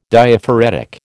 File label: "Diaphoretic", American